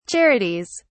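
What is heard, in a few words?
"charities", British English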